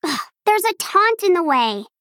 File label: Female Gnome ERROR